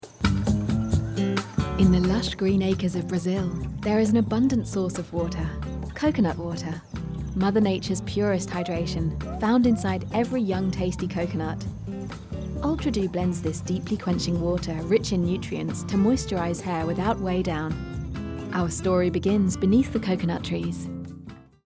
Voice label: sourcing storytelling; Garnier Ultra Doux